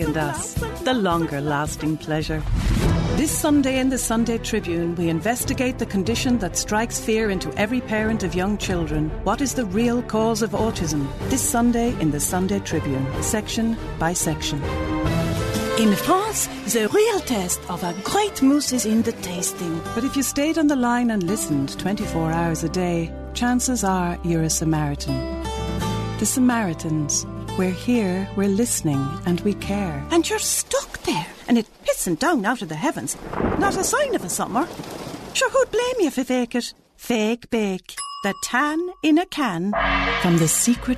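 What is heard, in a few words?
Irish English female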